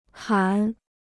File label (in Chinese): "喊", Chinese